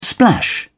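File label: "splash", British English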